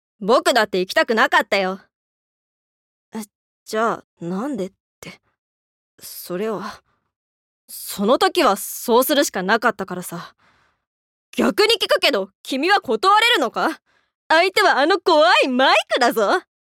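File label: voice sample, boy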